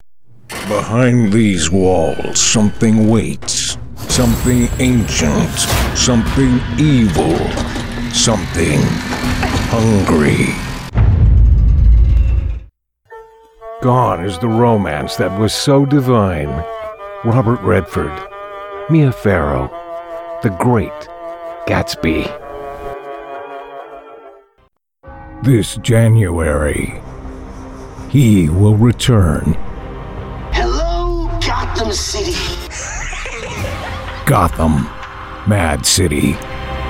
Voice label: Movie Trailer